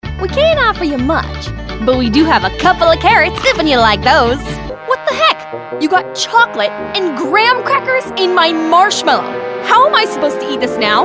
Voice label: Animation